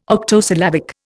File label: "octosyllabic", Indian